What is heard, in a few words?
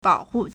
"bao3hu4", Chinese